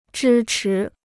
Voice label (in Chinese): "支持", Chinese